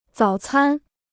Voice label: "早餐", Chinese